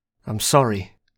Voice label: "I'm sorry" British male

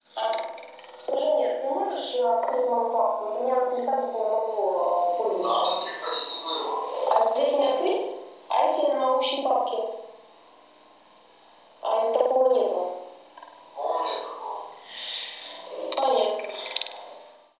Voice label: Russian Voice Fx

